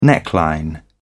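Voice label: "neckline", British English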